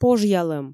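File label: "пожъялӧм", Komi, female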